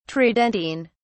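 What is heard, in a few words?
"tridentine", British English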